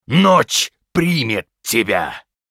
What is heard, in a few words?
Critical Hit, ru RU; Diablo III; озвучка некроманта